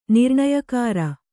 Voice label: "ನಿರ್ಣಯಕಾರ", kannada

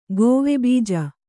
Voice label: "ಗೋವೆಬೀಜ", Kannada

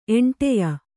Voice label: "ಎಂಟೆಯ", Kannada; eṇṭeya; noun; a ring.

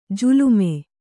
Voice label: "ಜಾಲೀಮ", Kannada

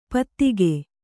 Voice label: "ಪದಿಕೆ", Kannada

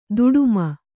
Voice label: "ದುಡುಮಿ", Kannada

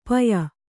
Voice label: "ಪಾಯು", Kannada

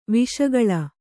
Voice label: "ವಿಷಗಾಳಿ", Kannada

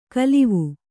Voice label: "ಕಲಿವು", Kannada

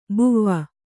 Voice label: "ಬುವಾ", kannada

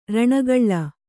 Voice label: "ಋಣಗೂಳು", Kannada

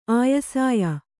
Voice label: "ಆಯಸಾಯ", kannada

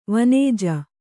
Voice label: "ವನಜ", Kannada

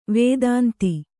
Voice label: "ವದಂತಿ", Kannada

